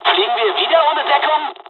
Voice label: dialog, dialogs german; War Thunder; midway